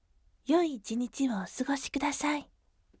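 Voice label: voice yoi 1 nichi wo sugosite gentle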